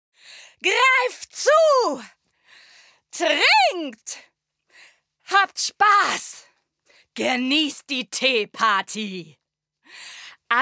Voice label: Anime; kraftvoll / anpreisend / wütend

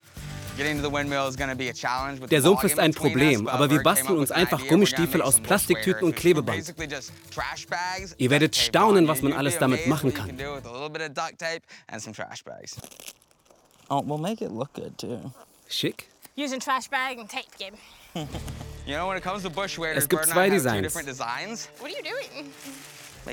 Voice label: Voice Over

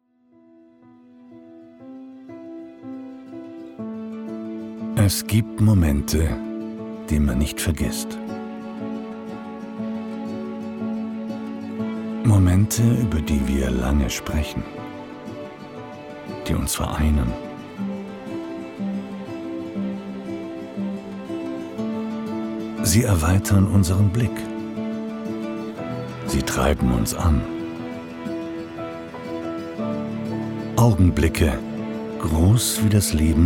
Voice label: Image Trailer, Imagefilm; Imagefilm Demo

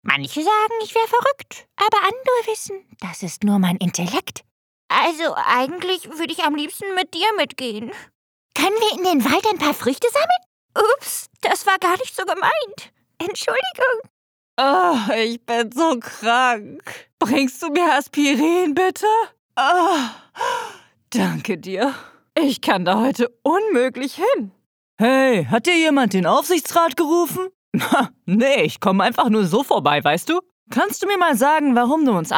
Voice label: Cartoon

